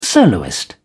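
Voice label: "soloist", English, British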